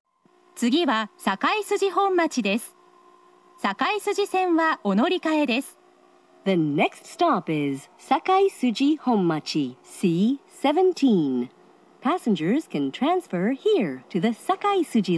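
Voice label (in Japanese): 大阪メトロ中央線車内放送, 次は堺筋本町+到着; 次は堺筋本町+到着(1番線)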